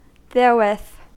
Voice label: "therewith", US; pronunciations